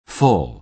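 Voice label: "full", American English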